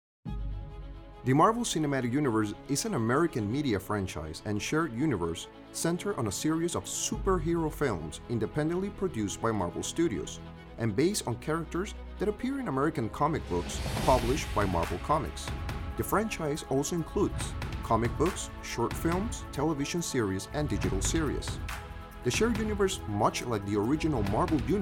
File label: narr eng